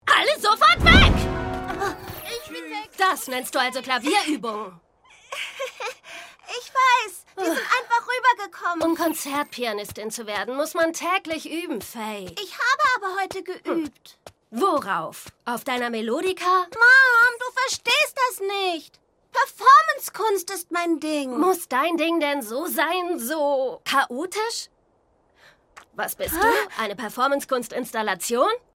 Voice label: Synchron, Lip-Sync (Synchron), German; Super Wings

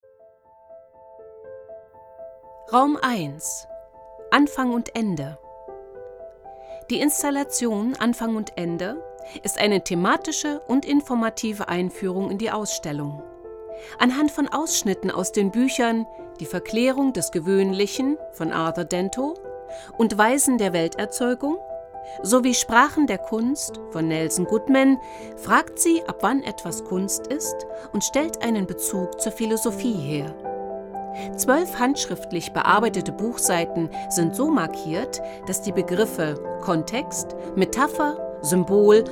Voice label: Audioguide

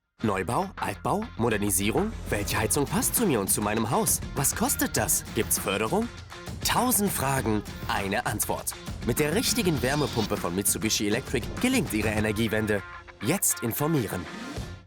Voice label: TV Werbung; Mitsubishi

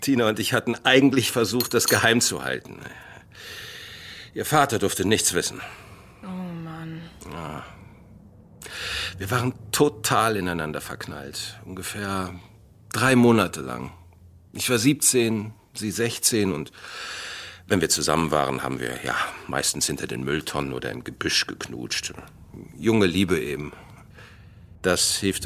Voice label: Hoerspiel; WDR; 12 Naechte; LOFT VOICES

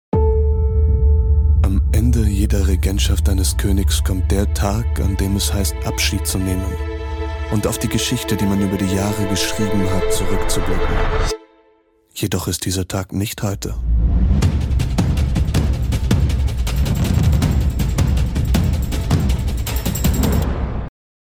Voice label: Stimmprobe werbung deutsch; Sturm Graz

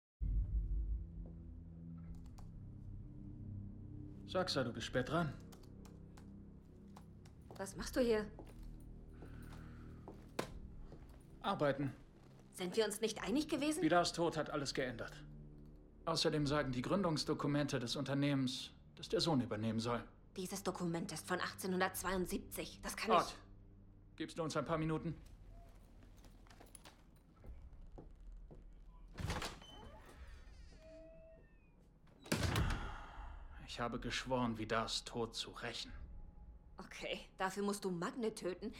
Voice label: arrogant dominierend; Ragnarök

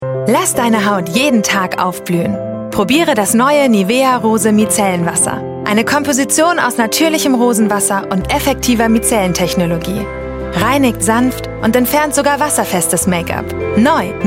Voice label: TvWerbung; Nivea; RoseWasser

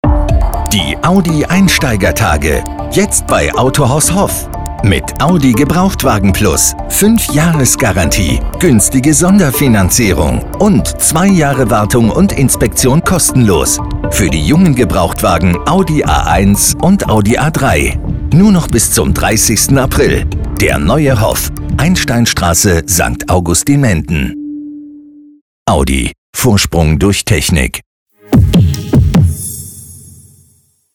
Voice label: Funkspot; Audi

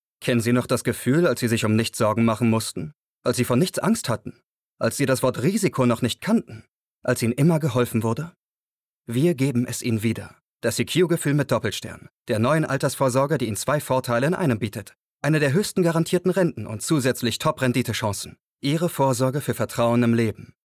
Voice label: Werbung Versicherungen

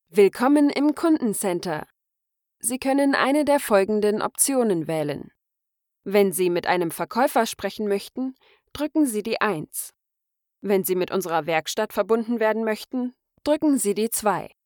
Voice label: IVR Kundencenter

